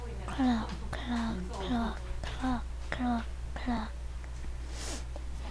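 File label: "clock", spoken word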